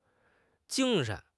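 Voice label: "jing1 shen2", Mandarin Chinese; HSK; HSK4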